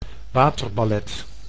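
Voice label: "waterballet", Dutch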